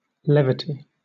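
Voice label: "levity", British English; pronunciations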